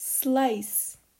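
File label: "Slice", English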